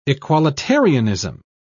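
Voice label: "equalitarianism", British English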